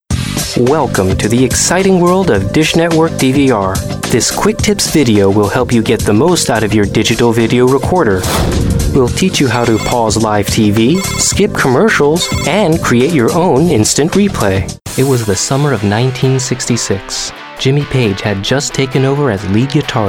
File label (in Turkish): Amerikan Ingilizcesi Erkek